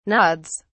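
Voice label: "nods", British English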